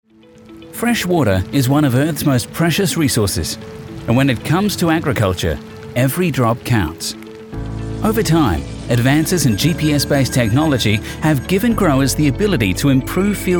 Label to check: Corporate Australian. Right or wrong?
Right